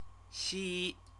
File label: "xi", Chinese